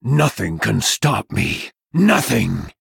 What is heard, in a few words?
Threaten